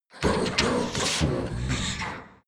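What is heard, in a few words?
demon giving orders, creatures; kreaturen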